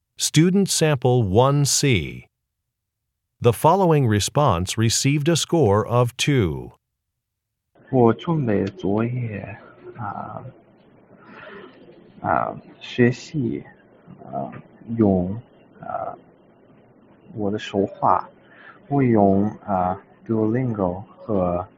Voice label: chinese conversation